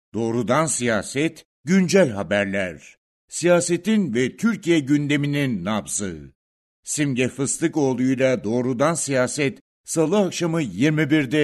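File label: Turkish, male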